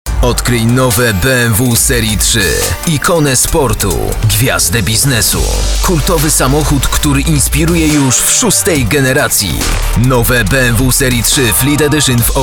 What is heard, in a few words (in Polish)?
reklama